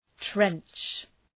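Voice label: "trench", English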